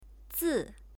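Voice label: "自", Chinese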